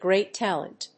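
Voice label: "great talent", English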